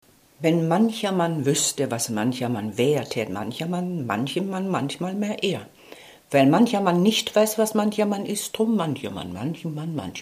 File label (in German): deutsch mann